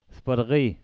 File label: "sparGay", Pashto